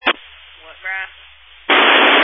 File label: Family Radio Service (FRS)